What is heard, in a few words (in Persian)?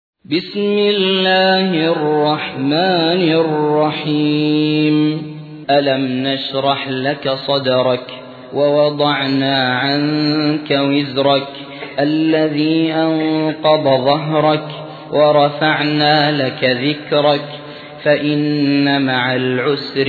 ترتیل; سوره انشراح